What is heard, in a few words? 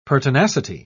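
"pertinacity", British English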